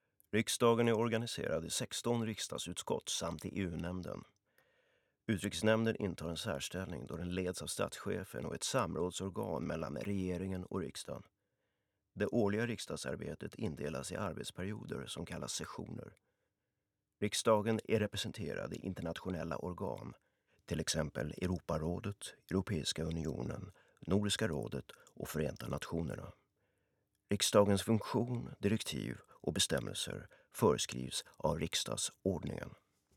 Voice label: info swe